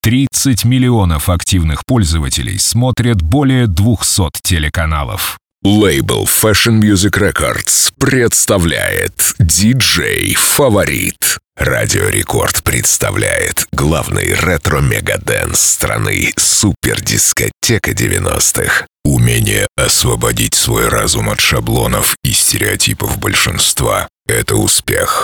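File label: Russian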